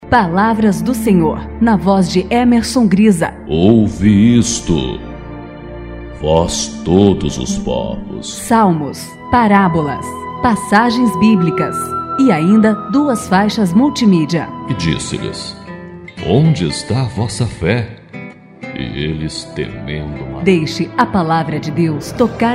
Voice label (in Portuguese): locutor spot divulgacao